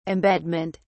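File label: "embedment", British English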